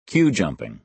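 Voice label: "queue-jumping", American English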